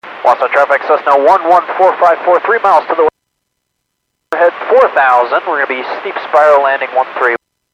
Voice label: Airports 122.7, Radio; KSTE

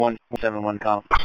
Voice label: Police Sheriff Dispatch

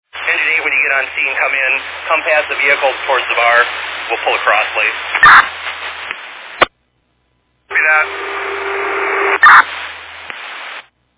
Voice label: Fire Dispatch

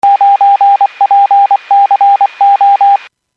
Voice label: Skywarn 146.67; Stevens Point

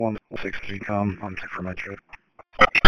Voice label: Police Sheriff Dispatch

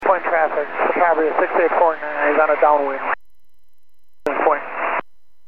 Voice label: Aviation 122.7; KSTE

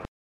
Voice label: Aviation 121.5 Emergency

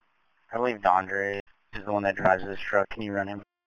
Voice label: Police Sheriff Dispatch

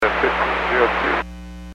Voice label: Aviation 121.5 Emergency

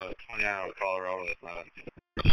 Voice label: Police Sheriff Dispatch

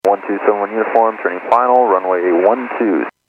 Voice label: Airports 122.7, Radio; KSTE

